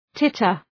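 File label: "titter", English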